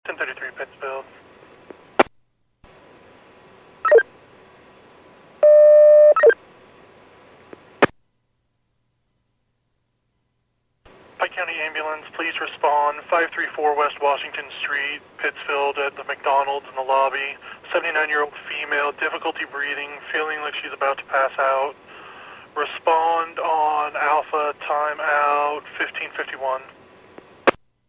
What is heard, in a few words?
PAGING